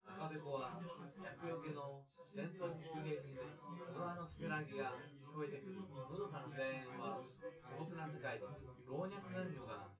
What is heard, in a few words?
male synth conv